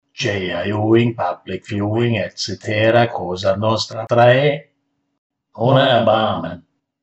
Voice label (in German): voice ohne erbarmen